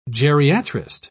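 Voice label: "geriatrist", British English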